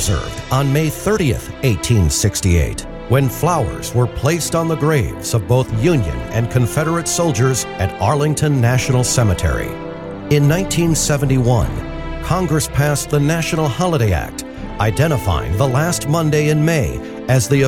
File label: memorial day announcement; stone mountain park